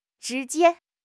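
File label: "zhi2 jie1", Chinese; 汉语口语新时代-初级下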